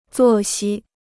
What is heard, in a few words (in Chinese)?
"作息", Chinese